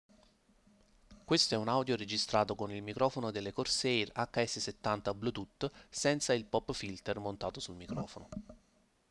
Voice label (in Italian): Test mic Corsair HS70 Bluetooth senza pop filter